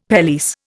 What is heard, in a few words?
"pelisse", Indian; Dictionary